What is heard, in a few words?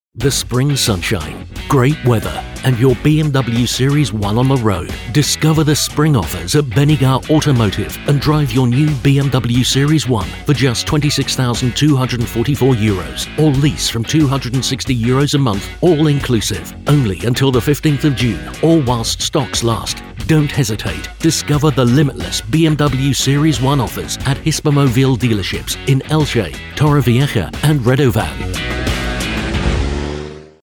Radio Commercial; BMW